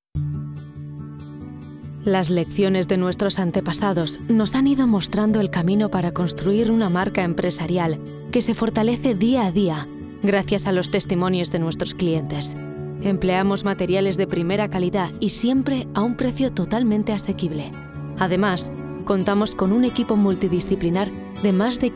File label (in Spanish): Corporativo castellano